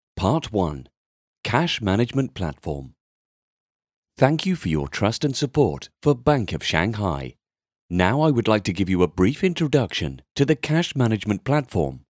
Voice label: 外籍 英语 英式 口音 银行 宣传 大气; 上海银行

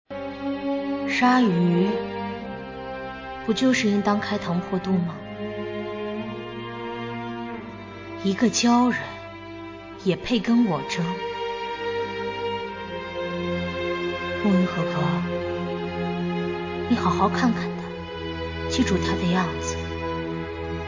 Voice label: 角色冷御

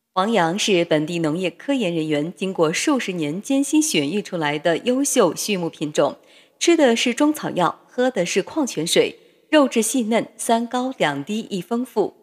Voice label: 促销广告

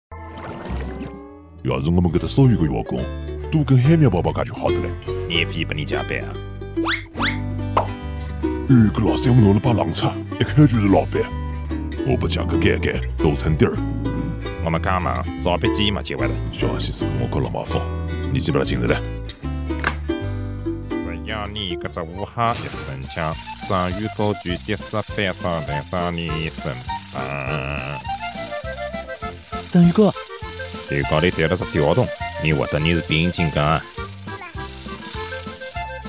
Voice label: 样音方言浙江杭州话版; 海绵宝宝

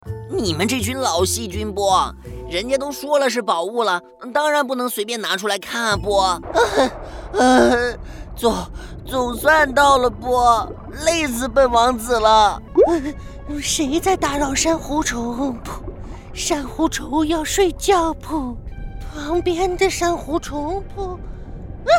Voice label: 卡通声音角色

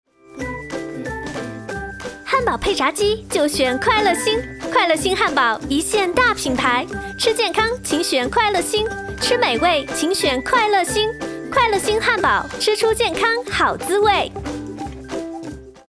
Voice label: 广告欢快活泼

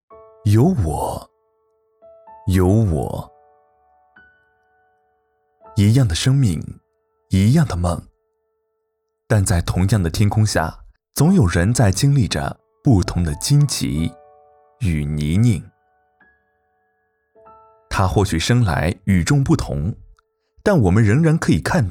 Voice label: 残联宣传片

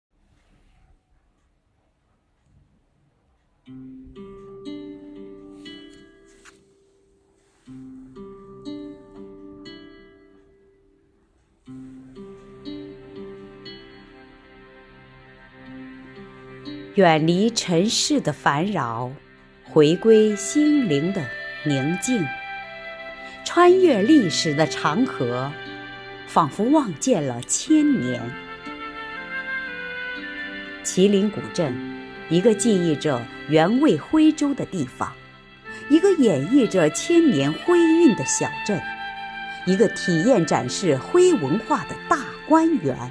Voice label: 古韵文化专题配音

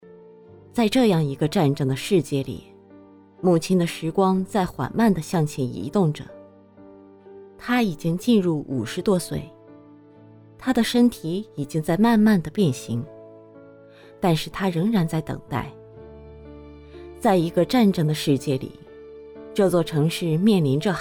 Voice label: 旁白

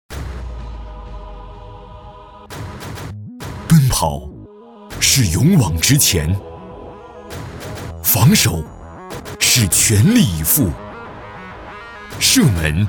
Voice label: 品质广告年轻激情; 太湖杯

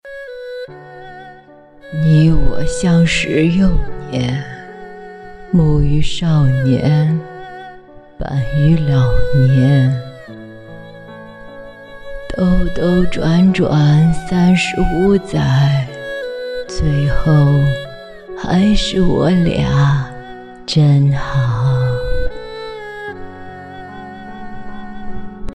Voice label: 老年音配音

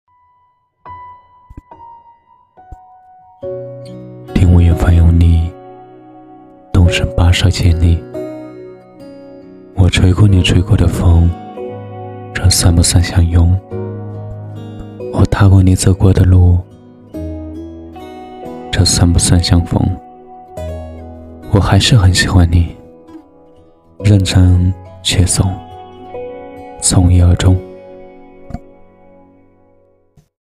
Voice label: 现代词配音

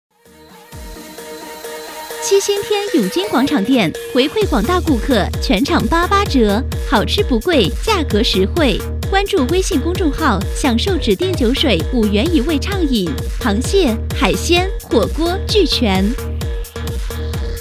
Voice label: 促销活力动感

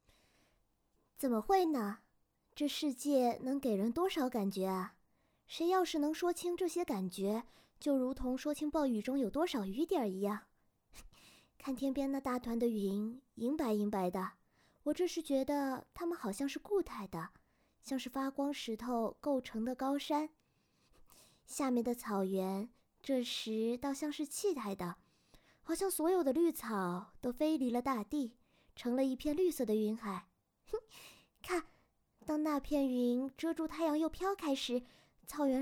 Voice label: 少女 温柔

